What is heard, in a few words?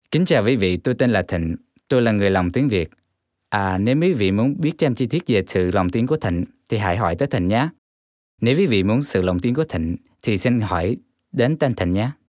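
Vietnamese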